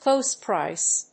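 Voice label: "close price", English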